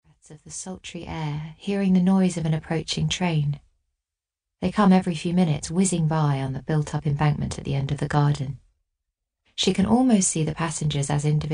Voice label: AUDIOBOOK; EVERYONE IS STILL ALIVE